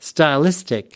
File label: "stylistic", us